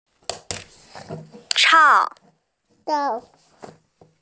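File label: "chang" Chinese, female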